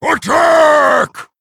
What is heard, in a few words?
Male Worgen Attack